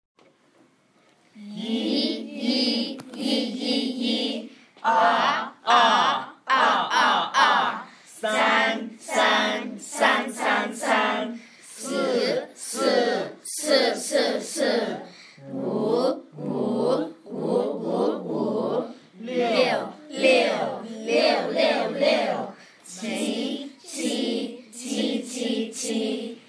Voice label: year 5 mandarin counting